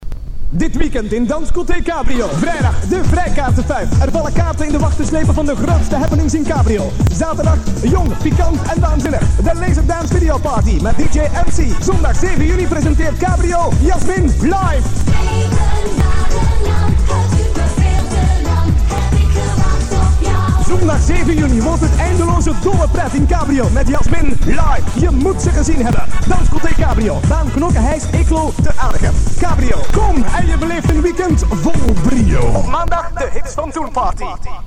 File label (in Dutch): spot en jingle; SIS Gent